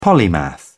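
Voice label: "polymath", British English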